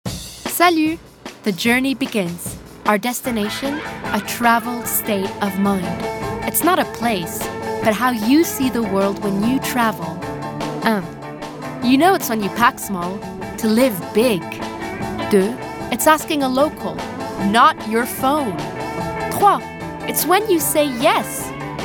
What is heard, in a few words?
Commercial